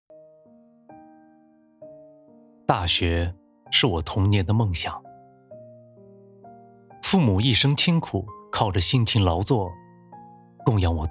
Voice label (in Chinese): 微电影独白样音年轻